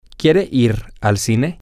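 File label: do you want to go to the movies, spanish, male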